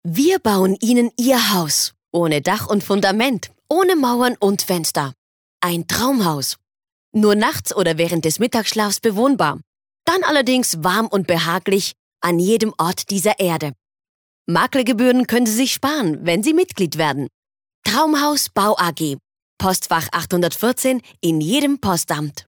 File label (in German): Werbung